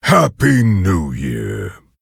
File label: Orc Emote HAPPY NEW YEAR LUNAR; Nerzhul